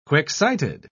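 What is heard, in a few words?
"quick-sighted", British English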